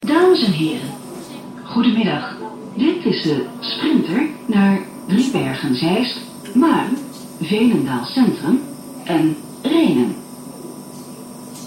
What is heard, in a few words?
Dutch train computer voice announcement